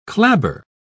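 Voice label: "clabber", British English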